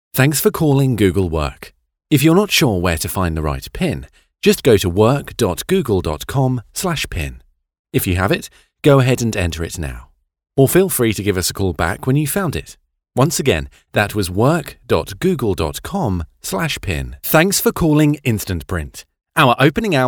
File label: voicemail